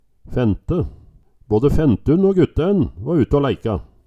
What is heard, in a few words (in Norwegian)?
fente, Numedalsmål